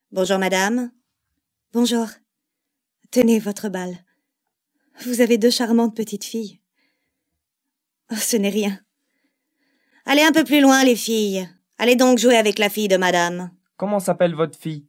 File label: female french audiobook; ideasonora